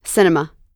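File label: "cinema", US English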